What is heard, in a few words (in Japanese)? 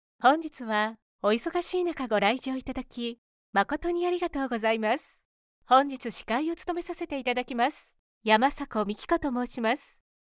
会場アナウンス